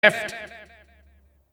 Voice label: time left 1 min, announcer; tf2cciv; open fortress